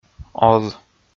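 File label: "оз", Komi, male; kpv audio dict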